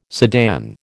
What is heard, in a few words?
"sedan", American